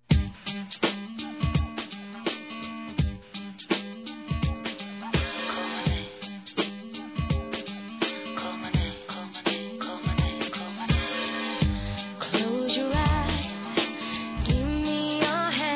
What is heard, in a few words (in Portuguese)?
Aniversário 15 anos Feminino; Aniversários; Telemensagens